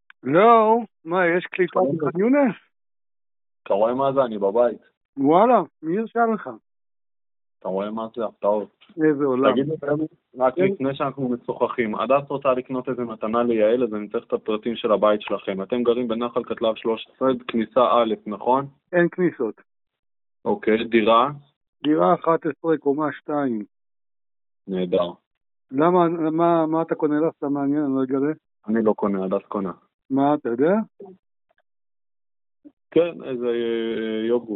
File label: Call recording